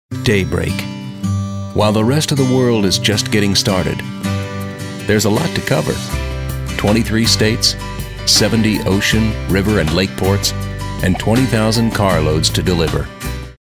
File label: soft spoken clean